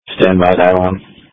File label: Police Tactical Encrypted